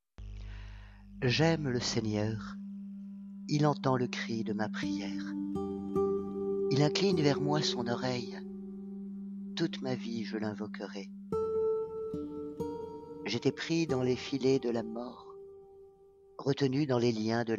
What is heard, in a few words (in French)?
psaume lu sur musique